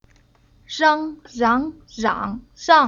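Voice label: "Rang", Chinese syllable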